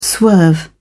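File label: "swerve", British English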